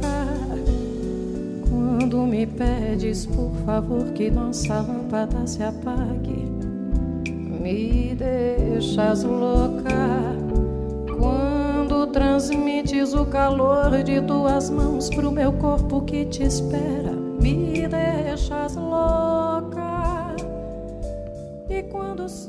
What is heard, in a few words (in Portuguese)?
Amizade Para Amigo Agradecimento Masculino